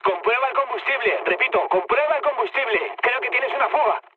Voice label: tank damaged, mood med, speech; War Thunder